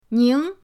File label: "ning2", pinyin